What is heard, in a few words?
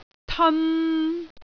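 "teon3", Cantonese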